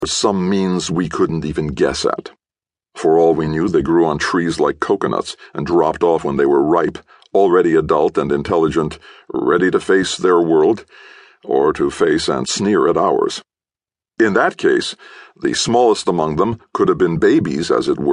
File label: Audiobook sample; Martians go home